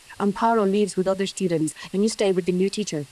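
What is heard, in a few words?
voice acting, es, female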